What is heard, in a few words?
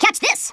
"fireball", Stiff Upper Lip